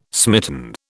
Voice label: "smitten", British